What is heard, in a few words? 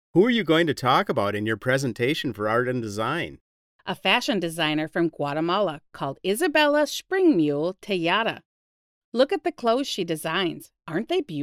conversation; Unit 1; Real Heroes 5